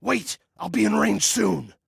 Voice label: Target Wait, Male